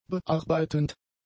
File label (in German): "bearbeitend", German; adjectives